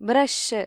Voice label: "brush", ml; gcompris